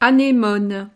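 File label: "anemone", French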